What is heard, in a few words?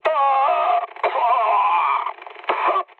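damaged pilot, mood low, French; War Thunder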